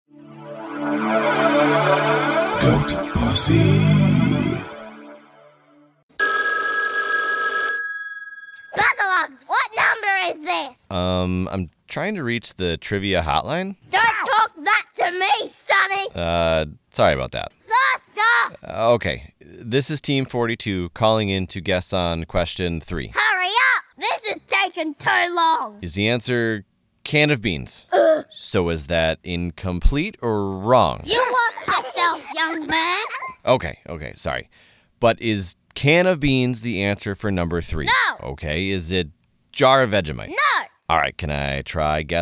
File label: Grouchy Phone Granny